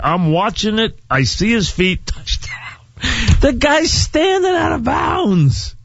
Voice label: Funny Voice; WFAN